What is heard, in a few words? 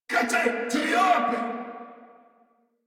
Player Spotted Corpus Venus Male, en; Warframe; Battle Barks